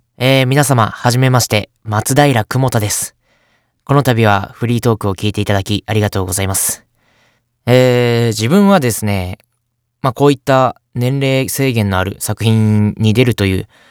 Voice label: フリートーク

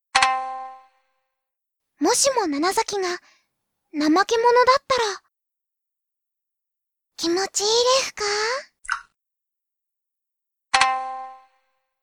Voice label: 4コマ読み上げ

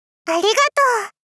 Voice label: ありがとうっ 少女×中音域

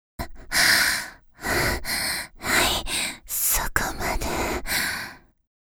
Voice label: 「はい、そこまで～」（ささやき）; ストップパーツ（エクササイズ間）; 一度手を止める

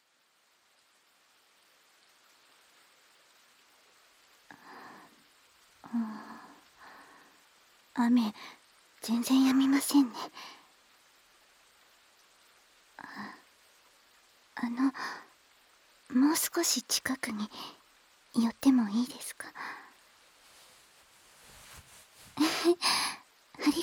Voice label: 添い寝・告白♪

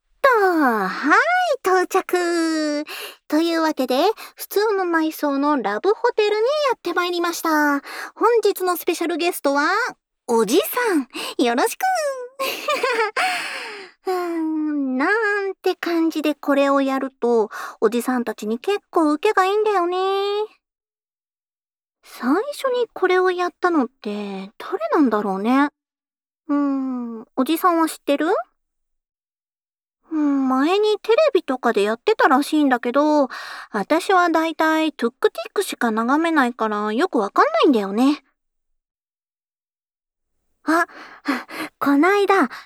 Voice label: 『歯磨き上手に出来るかなぁ?』, ASMR

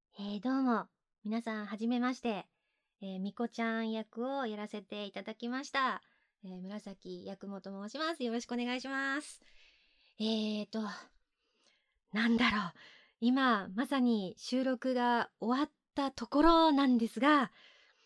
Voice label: フリートーク

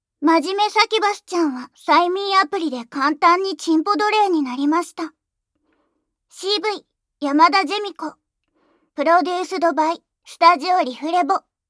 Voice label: タイトルコール, ASMR

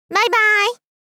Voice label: ばいば～い！ 少女×中音域

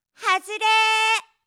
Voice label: "はずれ～", 遊ぶ女の子

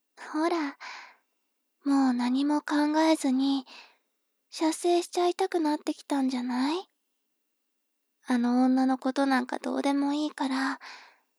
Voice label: 妹 台詞

